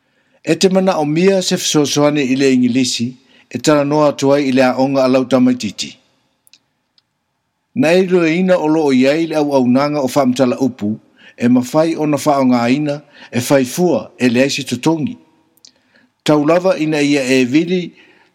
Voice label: samoan; public schools; going to a public school